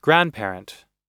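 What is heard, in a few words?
"grandparent", American English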